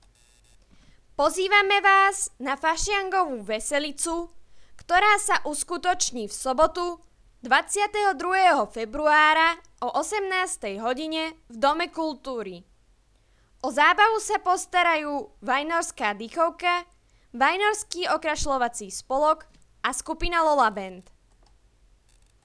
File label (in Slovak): Hlásenie miestneho rozhlasu; Fašiangová veselica - pozvánka